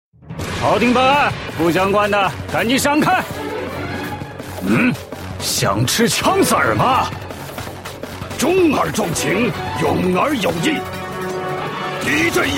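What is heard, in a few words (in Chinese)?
male, zhuanghan dashu (burly middle-aged man)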